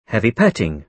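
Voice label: "heavy petting", British English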